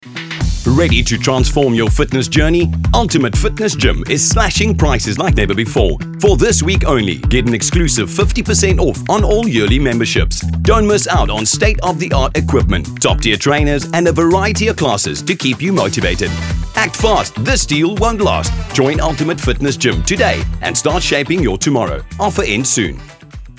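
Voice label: English voice over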